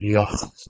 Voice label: "leoht", Anglo-Saxon